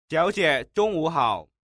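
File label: "xiaojie, zhongwuhao", Mandarin Chinese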